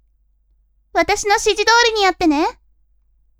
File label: women